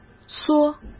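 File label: "缩", Mandarin Chinese; hsk; chinese-flashcards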